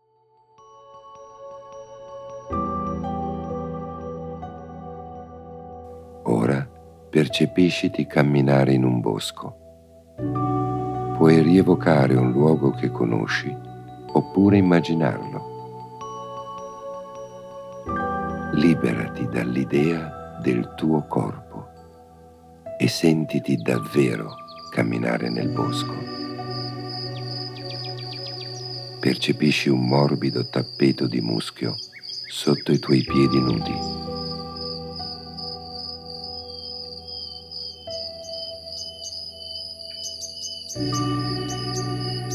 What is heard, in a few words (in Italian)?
DIURNO MASCHILE